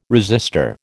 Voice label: "resistor", American